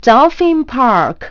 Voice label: "dolphin park", announce voice; Nintendo; July 2020 Gigaleak

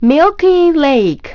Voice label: milky lake, announce voice; Nintendo; July 2020 Gigaleak

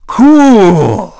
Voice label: dj jump nice, DJ VOICE; Nintendo; July 2020 Gigaleak

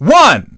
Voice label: dj signal, DJ VOICE; Nintendo; July 2020 Gigaleak

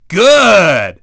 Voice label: dj buoy, DJ VOICE; Nintendo; July 2020 Gigaleak

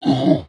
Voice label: oof, painfalling; covy involuntary; elite

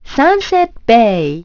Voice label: "sunset bay", announce voice; Nintendo; July 2020 Gigaleak

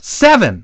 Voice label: dj, voice; Nintendo; July 2020 Gigaleak; VSLAP VOICE

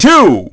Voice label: dj signal, DJ VOICE; Nintendo; July 2020 Gigaleak

